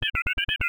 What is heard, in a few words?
Voice Normal Reply